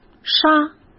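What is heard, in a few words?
"纱", Mandarin Chinese; chinese-flashcards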